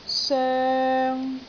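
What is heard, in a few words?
"soeng3", Cantonese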